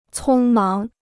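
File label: "匆忙", Chinese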